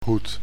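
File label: "hoed", Dutch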